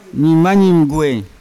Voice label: "nimanyim gue" horsefly, Yawa

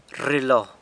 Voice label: "rrilo", thinks, San Lucas Quiavini

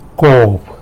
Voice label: "cub", tlacolula; tejate (a drink)

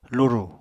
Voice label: "lurù", Apatani

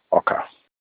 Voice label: maize, Olukumi

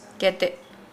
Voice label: "basket", Nukuoro

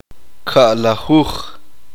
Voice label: uspanteko; doce

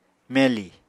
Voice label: "meli", Apatani

